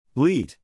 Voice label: "bleat", English, neural; animal sounds in english; Stephen

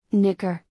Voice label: "nicker", English; звуки животных на английском; ржать; Salli

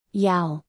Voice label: "yowl", English; звуки животных на английском; кошка; Salli

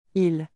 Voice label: aww, neural; french

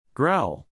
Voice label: "growl", English, neural; animal sounds in english; Angry dogs; Stephen

